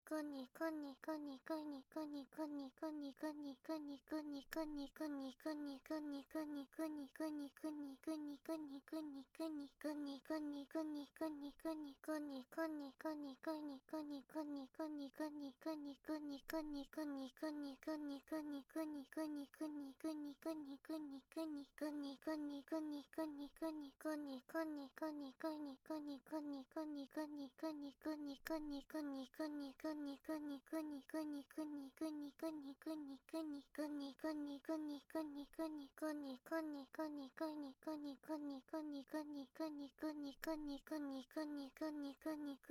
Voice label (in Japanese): ぐにぐにボイス, 汎用エロボイス; おまけ音声（汎用指示ボイス・汎用エロボイス）